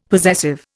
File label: "possessive", Indian; Dictionary